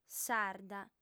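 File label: "sarda", female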